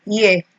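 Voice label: "year", Nigerian Pidgin, female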